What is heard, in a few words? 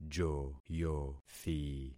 "joyothi", concat syllables; Affixing experiment